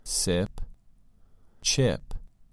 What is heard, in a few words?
"sip" "chip"